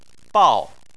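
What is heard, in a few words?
"bao" Chinese, fourth tone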